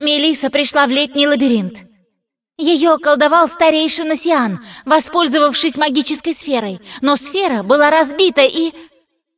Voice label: cinematic voice, ru; lineage2